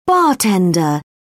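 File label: "bartender", British English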